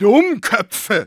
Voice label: Medic negative vocalization, de

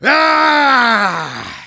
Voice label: battlecry; Engineer

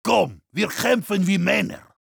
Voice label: heavy melee dare, de

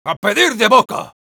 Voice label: positive vocalization, es; ¡A pedir de boca!; Sniper

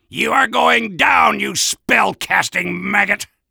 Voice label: Soldier taunts

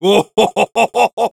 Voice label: laugh evil, es; Heavy; Risa malvada

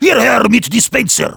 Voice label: heavy need dispenser, de; "Hierher mit Dispenser."

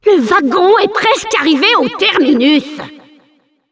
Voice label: announcer cart defender final warning fr

